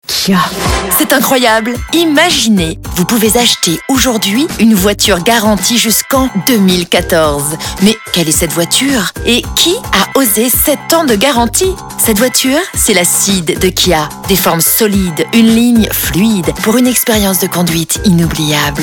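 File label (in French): sure claire signature promo, comédienne voix off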